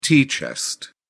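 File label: "tea chest", British English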